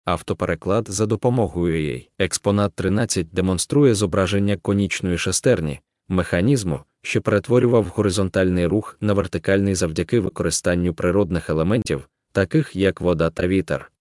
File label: ukrainian